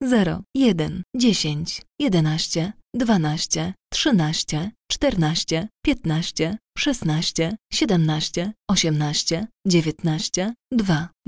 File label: Dealer Voice, sk, Roulette; real Dealer European Roulette Classic; pl